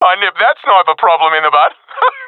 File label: i killed sniper, professional epic; vo; Source Model Packs; Counter Strike Model Pack; CS2